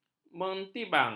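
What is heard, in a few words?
"mentibang", Malay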